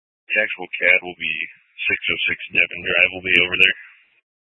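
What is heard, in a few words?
Sheriff Dispatch, Scanner audio recording archive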